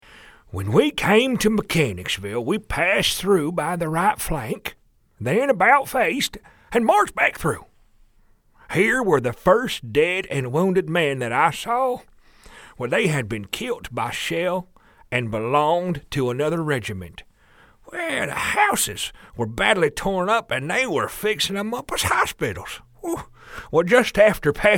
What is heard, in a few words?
Old Fogey Lieutenant; voice over; Greenlee